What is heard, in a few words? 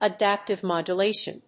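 "adaptive modulation", English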